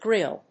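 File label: "grille", English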